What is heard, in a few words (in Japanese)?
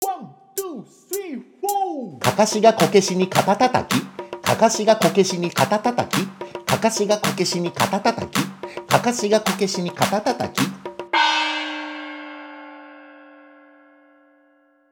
早口言葉リズム（中級編）